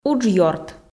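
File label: "уджъёрт", Komi, female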